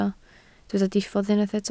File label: "diffodd", Welsh